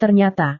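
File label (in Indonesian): "ternyata", Indonesian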